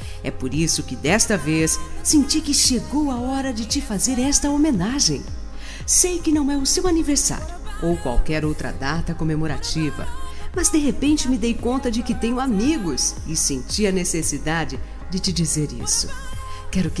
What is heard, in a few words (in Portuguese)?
AMIZADE PARA AMIGA FEMININO, telemensagem